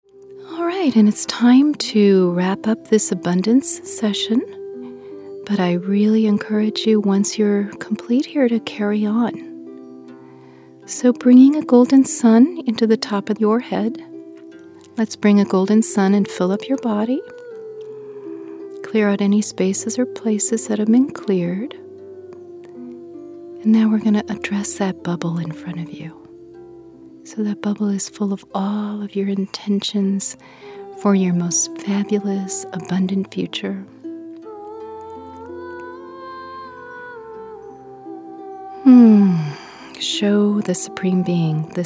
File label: Meditation; Abundance Completion; quantum mind training